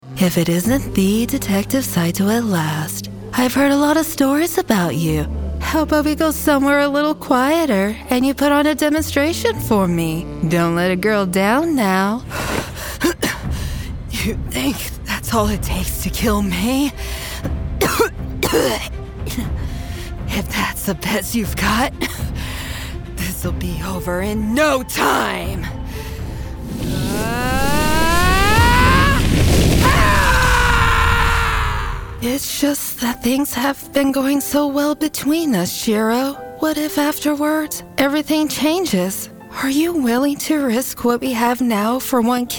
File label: Anime; Demo Reel